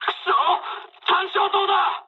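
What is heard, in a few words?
searchlite mood high, speech japanese; War Thunder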